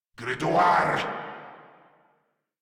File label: Reload, Grineer Male